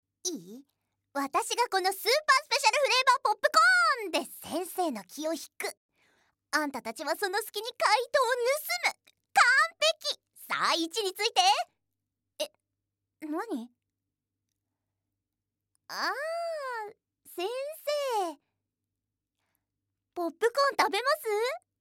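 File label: foreign film dubbing, Japanese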